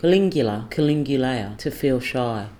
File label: "kulingula kulingulaya" to feel shy